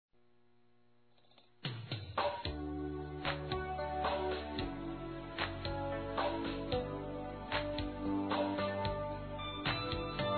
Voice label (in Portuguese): preciso ficar de bem, masc; Telemensagens 2017